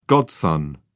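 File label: "godson", British English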